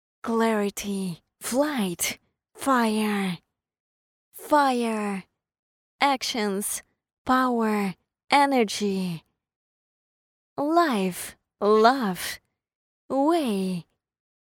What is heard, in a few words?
Promo Jingle Voice